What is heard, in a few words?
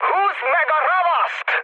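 attack position, mood high, Hungarian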